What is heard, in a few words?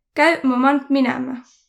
leave me alone, voro